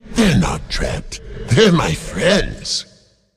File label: Male Demon Play